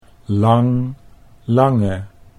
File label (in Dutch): "lang lange", Dutch